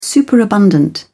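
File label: "superabundant", English, uk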